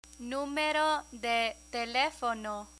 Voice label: "numero de telefono", Spanish